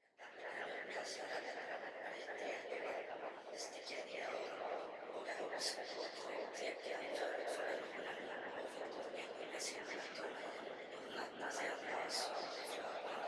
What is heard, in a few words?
Whispering